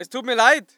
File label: voice ger infantry